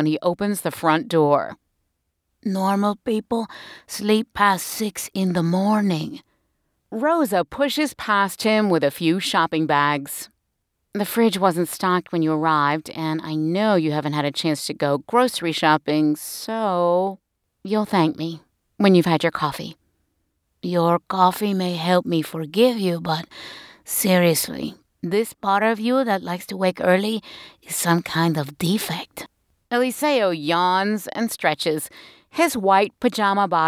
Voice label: AUDIOBOOK ROMANCE